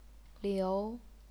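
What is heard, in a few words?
"liu", second tone, chinese pinyin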